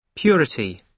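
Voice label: "purity", British English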